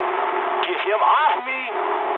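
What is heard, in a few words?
English aircraft damaged mood med; War Thunder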